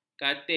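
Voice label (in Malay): "katik", Malay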